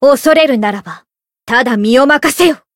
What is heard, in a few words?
limitbreak, jp; eva